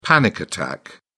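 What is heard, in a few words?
"panic attack", British English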